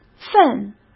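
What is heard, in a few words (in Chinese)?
"份", Mandarin Chinese; chinese flashcards; hsk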